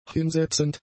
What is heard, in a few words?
"hinsetzend", German; adjectives